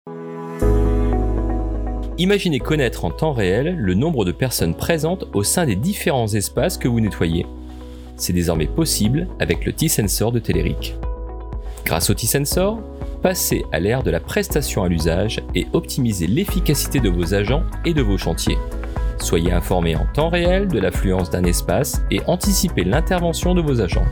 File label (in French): VOIX OFF SMARTPHONE